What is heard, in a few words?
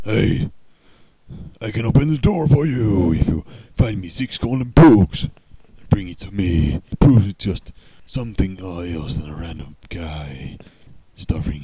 library voice